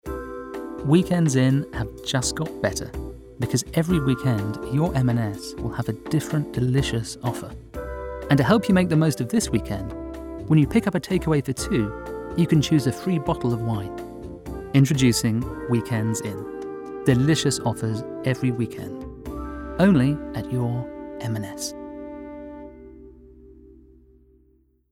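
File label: Ad - intimate soft sell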